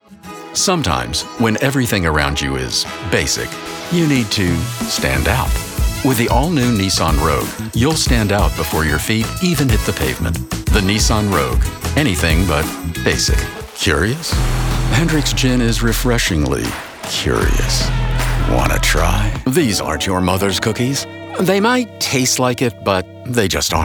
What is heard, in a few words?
Commercial